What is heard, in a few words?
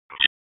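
EMS DISP, radio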